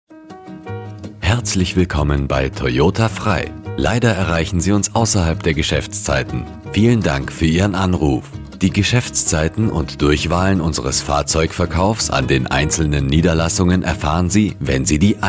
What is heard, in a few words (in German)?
Telefon freundlich; Toyota